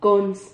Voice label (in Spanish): "cons", Silabas